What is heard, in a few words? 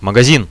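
shop, radio, Russian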